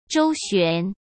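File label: "周旋" Mandarin Chinese; hsk; chinese-flashcards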